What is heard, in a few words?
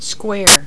"square", voices